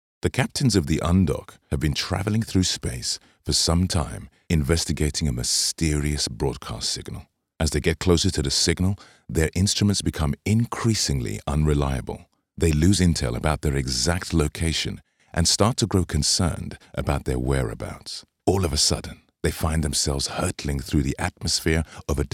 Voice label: english british narration